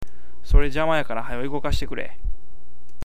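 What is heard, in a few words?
"igokasu", Awaji dialect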